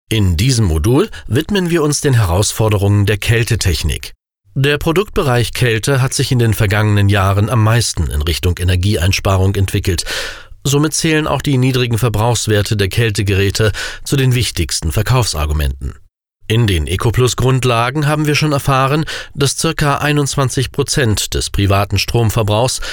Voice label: elearning audioguide